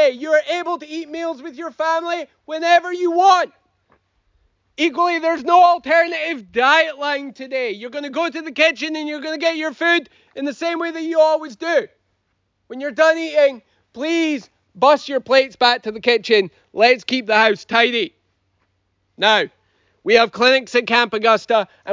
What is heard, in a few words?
breakfast announcements